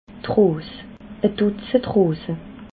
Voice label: "ros", alsace

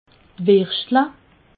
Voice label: "wirschtle", alsace

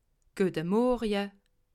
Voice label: "guete morje", alsace

